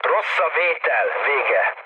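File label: chat, speech hungarian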